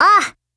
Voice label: AGREE JP

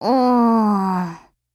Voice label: LOW MOOD, JP

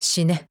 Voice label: SKILL JP

